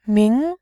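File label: "ming", Mandarin, tone 2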